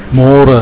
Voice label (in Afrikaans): "more", Afrikaans